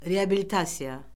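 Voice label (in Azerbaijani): "reabilitasiya", Azerbaijani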